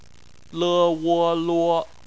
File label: "luo", Chinese; phonetics